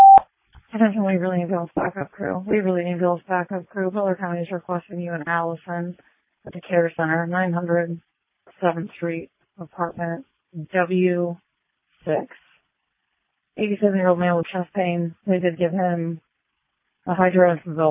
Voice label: Waverly Ambulance, dispatch

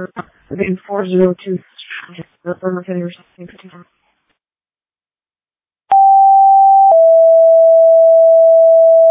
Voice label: Janesville First Responders, dispatch

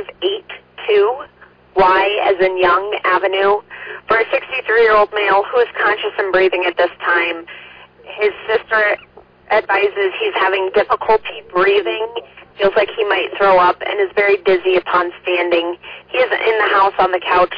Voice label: Ambulance, dispatch

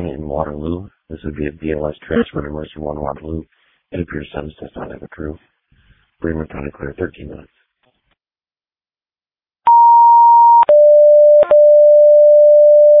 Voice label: Ambulance, dispatch

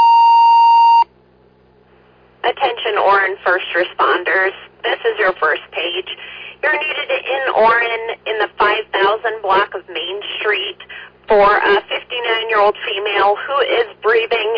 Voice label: Oran Fire, dispatch

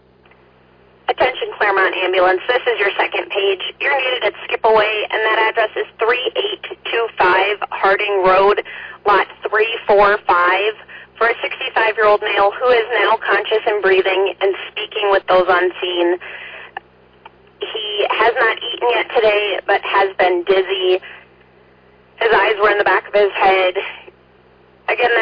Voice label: Fire, dispatch; Clermont

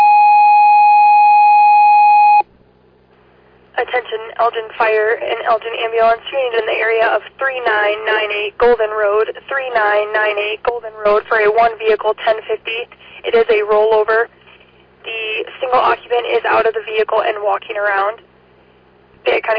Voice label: Fire, dispatch; Elgin

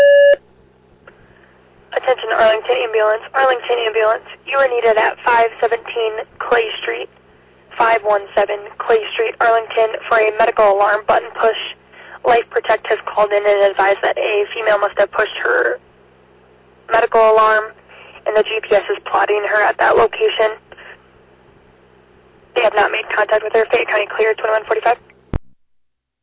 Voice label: Arlington Fire, dispatch

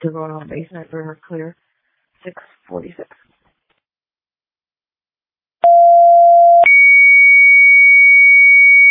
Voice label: Fire, dispatch

